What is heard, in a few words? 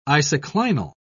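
"isoclinal", British English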